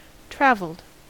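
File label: "travelled", US English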